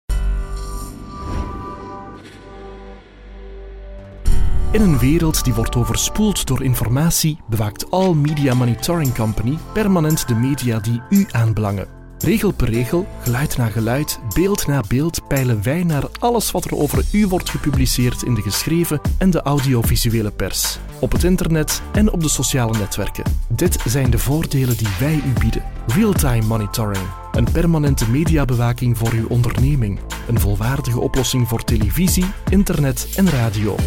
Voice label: flemish corporate videos

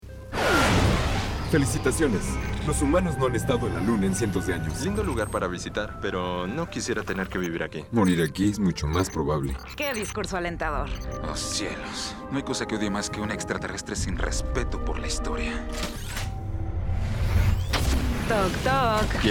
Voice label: spanish mexican video games

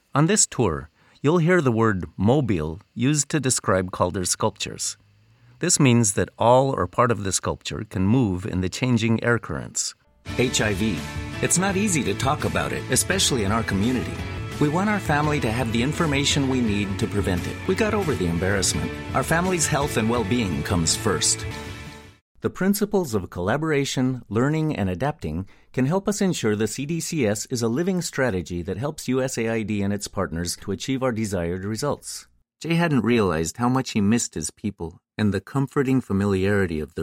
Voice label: english american narration

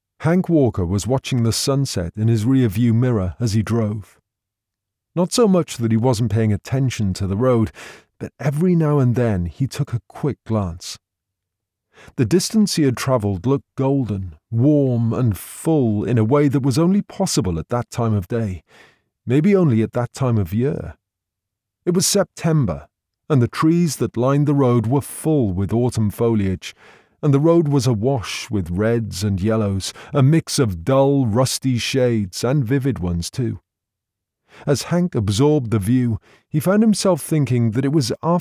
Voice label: english british audiobooks

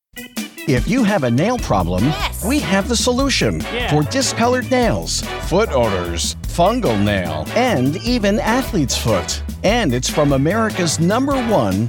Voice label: english american commercial; Vereinigte Staaten

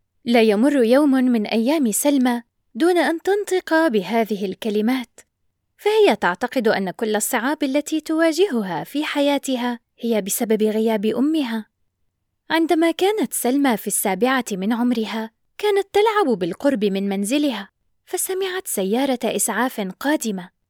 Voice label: arabic narration

